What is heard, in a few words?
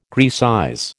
"Graecize", American